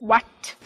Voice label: "what" angry